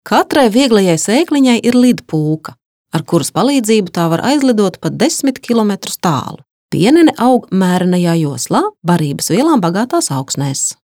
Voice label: lv

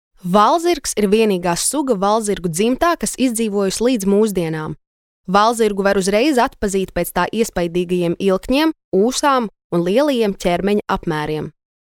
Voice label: Infografika LV

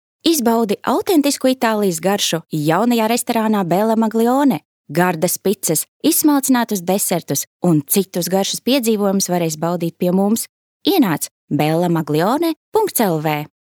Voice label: Reklāma LV

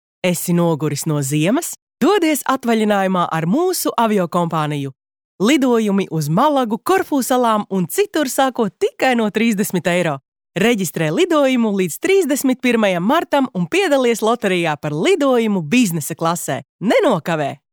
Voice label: Reklāma

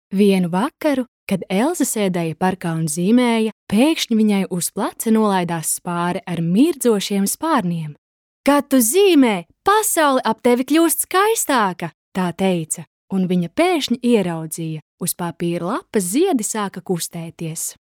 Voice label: LV Pasaka

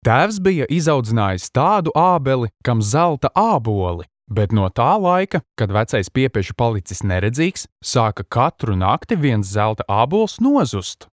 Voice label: lv

